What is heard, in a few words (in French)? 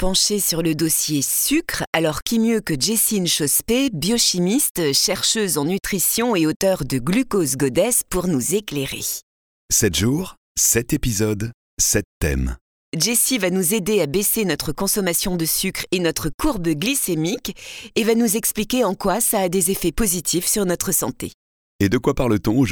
comedienne voix off podcast; sucre